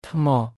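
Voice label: "ថ្ម", Khmer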